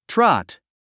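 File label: "trot", English word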